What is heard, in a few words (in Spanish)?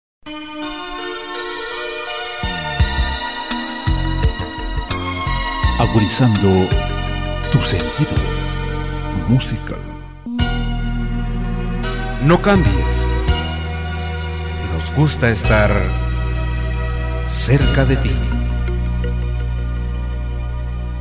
spot agudiza sentido musical para balada en español o ingles